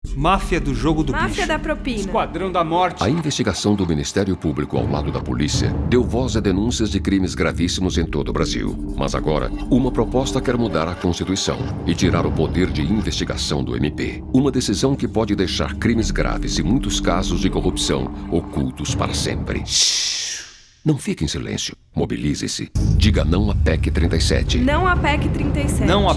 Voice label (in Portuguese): Remix Spot Vozes